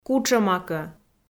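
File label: "кутшӧмакӧ", Komi, female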